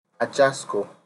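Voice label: "ajasko", Nigerian Pidgin, male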